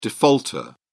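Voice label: "defaulter", British English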